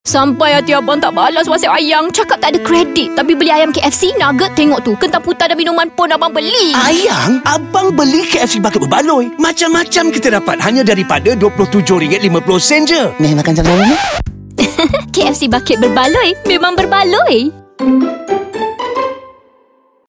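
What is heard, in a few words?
Radio Malay; KFC Bucket Berbaloi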